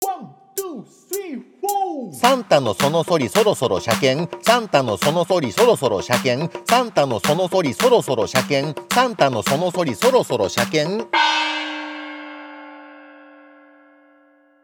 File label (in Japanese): 早口言葉リズム（中級編）